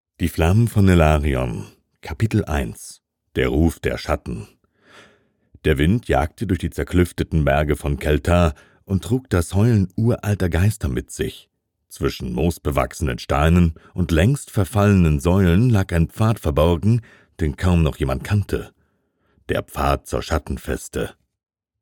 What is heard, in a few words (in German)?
Fantasy, sprecherinnen